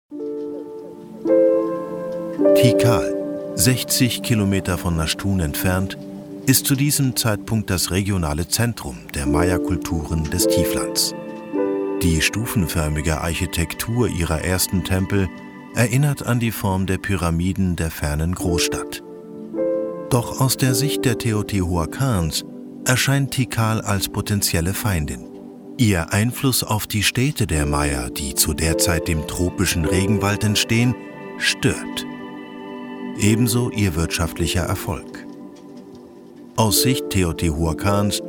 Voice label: german documentaries; Deutschsprecher aus Berlin

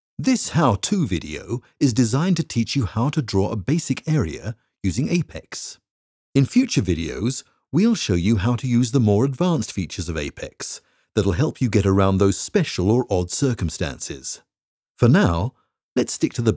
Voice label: english british e-learning

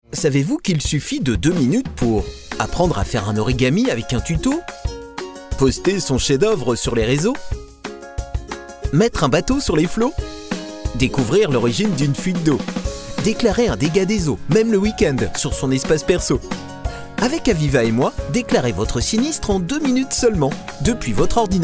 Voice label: french corporate videos

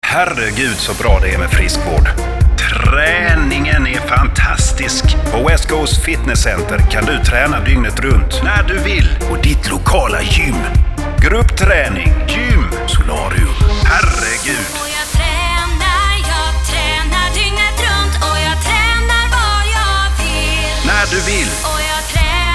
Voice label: swedish

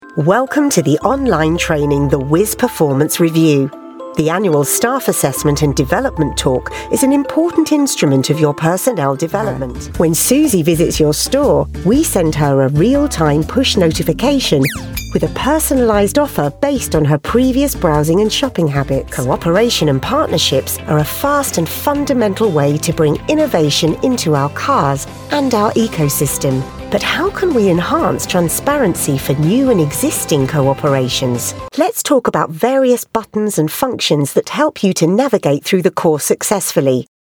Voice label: english british corporate videos

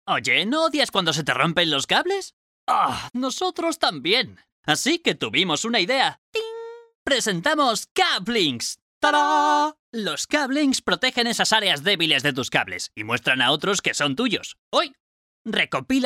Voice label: spanish others

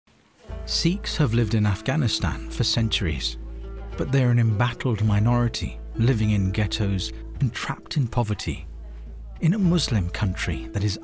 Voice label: english british documentaries

